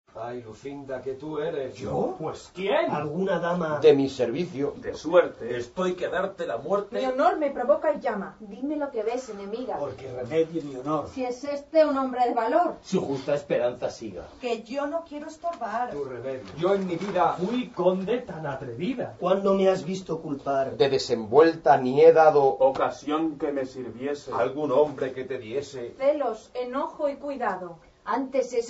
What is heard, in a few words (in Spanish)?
octosílabo corrido semifluido; Teatro